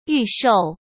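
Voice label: "预售", Mandarin Chinese; hsk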